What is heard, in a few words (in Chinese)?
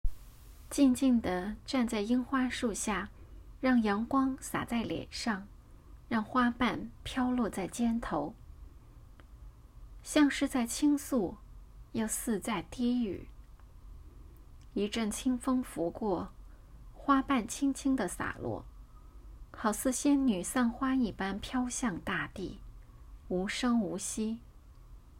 美文配音